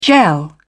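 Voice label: "gel", British English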